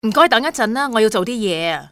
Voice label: i have work, male; XBOX; civ

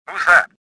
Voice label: suspicious; XBOX; worker

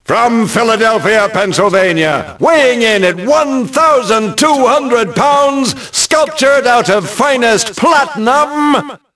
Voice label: announce, ENGLISH; Rocky; XBOX

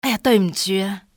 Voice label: excuse me, civ fmale; XBOX

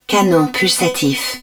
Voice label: hud pulse cannon, french

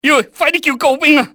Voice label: call for reinforcements, cantonese male; XBOX; enemy

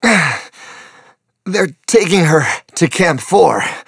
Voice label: wounded soldier, voice; Star Wars Obi Wan; XBOX

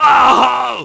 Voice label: fall, negative; Splashdown; XBOX

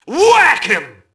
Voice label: mobster alert group; Max Payne

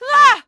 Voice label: ENG; Dialogue; Cars; XBOX

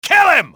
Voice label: kill him, english male; XBOX; enemy

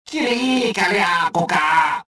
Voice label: detected, rodian

